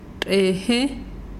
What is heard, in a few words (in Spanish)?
"monte", mazahua